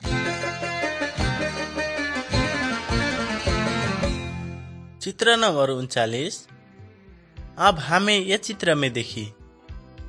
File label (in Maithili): good news maithili, nt drama; maithili kisan; wycliffe bible translators inc